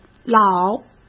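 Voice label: "老", Mandarin Chinese; hsk; chinese-flashcards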